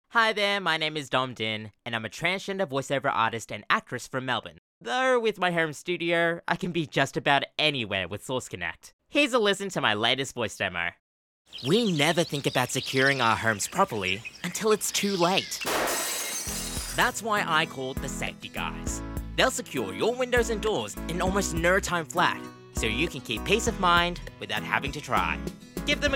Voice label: Commercial, en-GB

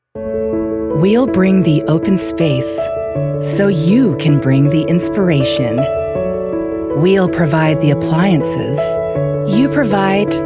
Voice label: spa, en-NZ

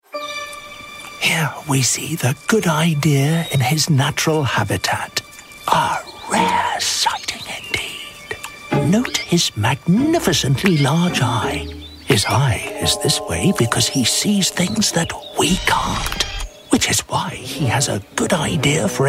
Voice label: Character; David Attenborough

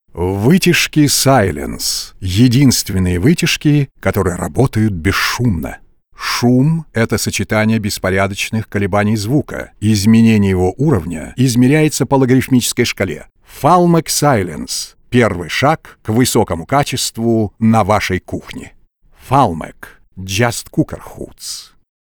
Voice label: TV ad; Falmec

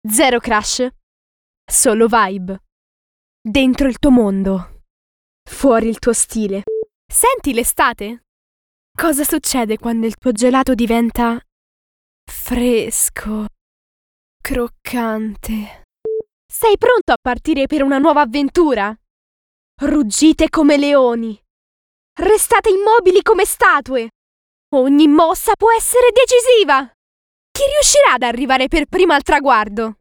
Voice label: Commercials

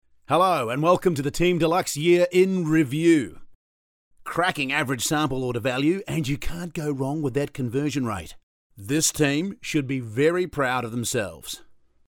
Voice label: Sports Commentator

